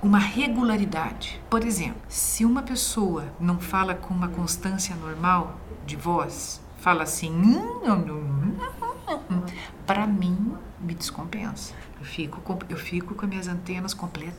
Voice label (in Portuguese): Voz Estridente